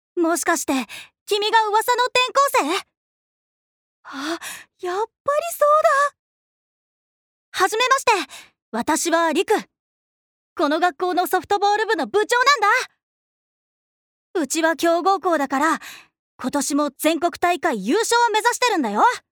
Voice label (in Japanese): アニメ